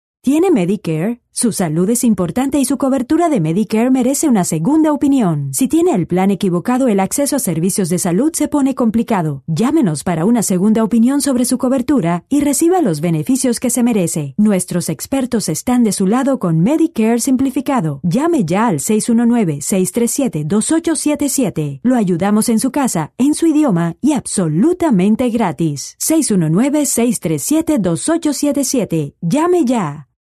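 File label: Spanish, Latin American, female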